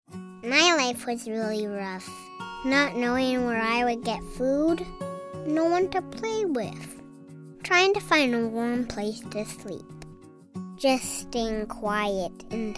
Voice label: kids commercial

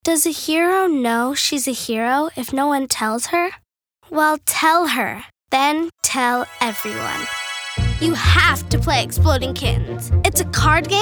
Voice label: kids commercial

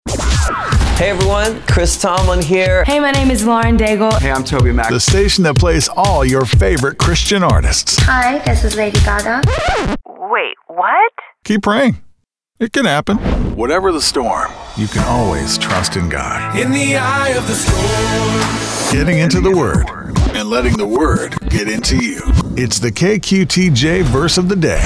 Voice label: men radio imaging

